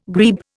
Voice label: "grebe", Indian